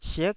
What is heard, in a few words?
"sik9", Taiwanese syllable